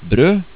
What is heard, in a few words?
"brod", Norwegian